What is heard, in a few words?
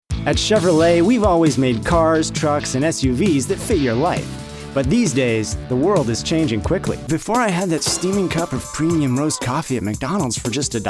Commercial